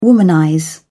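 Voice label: "womanize", British English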